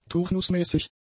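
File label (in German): "turnusmäßig", German; adjectives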